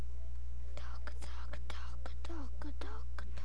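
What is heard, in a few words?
"can", spoken word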